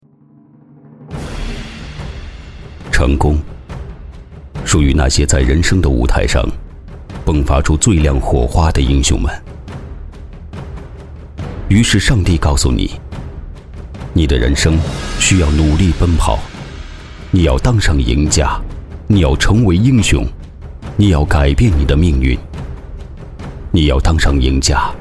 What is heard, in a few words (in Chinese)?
男 专题 营销; 职业服; 职业服营销